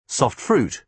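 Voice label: "soft fruit", British English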